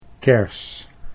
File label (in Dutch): "kers", Dutch